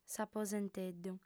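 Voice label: "s'aposenteddu", female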